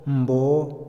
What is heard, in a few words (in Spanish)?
adentro, Otomí, hombre; INALI